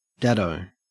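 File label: "dado", Australian English; pronunciations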